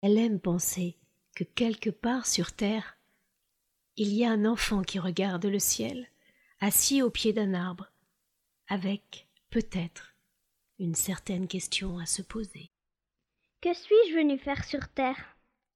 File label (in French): Narration Conte Voix naturelle Emotive